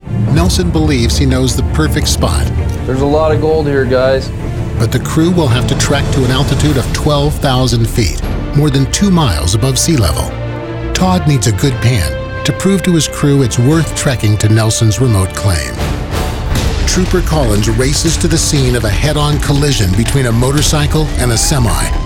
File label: TV Narration